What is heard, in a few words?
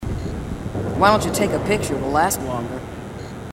female marine